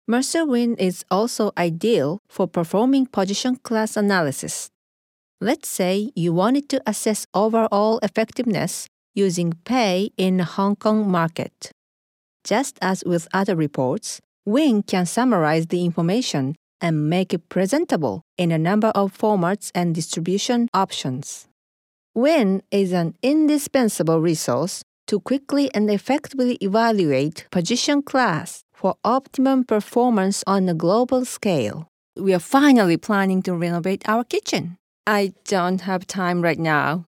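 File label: Japanese, English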